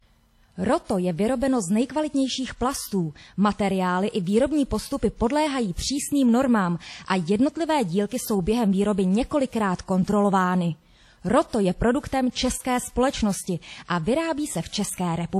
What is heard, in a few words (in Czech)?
profesionalni zensky voiceover